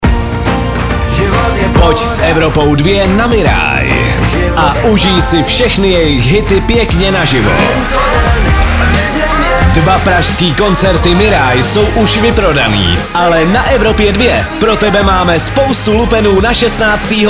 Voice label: promo